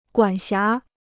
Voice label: "管辖", Chinese

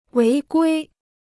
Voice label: "违规", Chinese

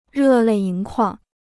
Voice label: "热泪盈眶", Chinese

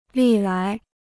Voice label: "历来", Chinese

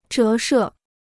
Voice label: "折射", Chinese

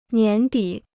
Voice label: "年底", Chinese

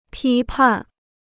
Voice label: "批判", Chinese

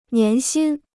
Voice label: "年薪", Chinese

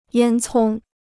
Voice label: "烟囱", Chinese

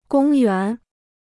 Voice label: "公园", Chinese

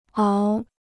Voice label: "熬", Chinese

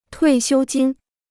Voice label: "退休金", Chinese

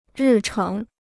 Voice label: "日程", Chinese

